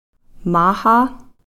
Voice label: "maxa", dad